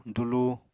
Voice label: "dulu", Apatani